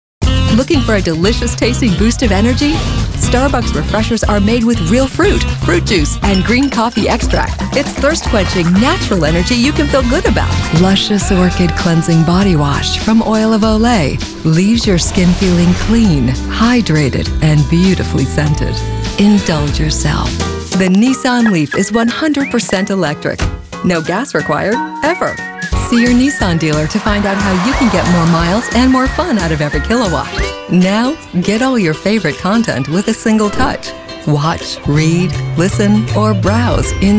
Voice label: Voice Talent Commercials